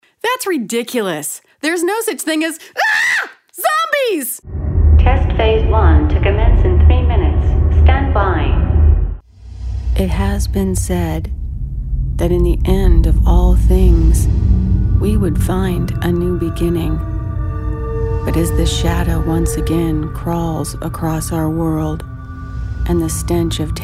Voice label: Video Game Voice